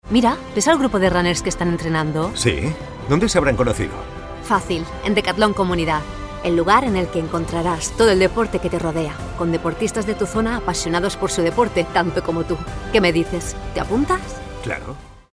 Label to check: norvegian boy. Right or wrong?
Wrong